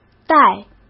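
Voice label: "带", Mandarin Chinese; chinese-flashcards; hsk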